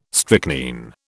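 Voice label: "strychnine", British English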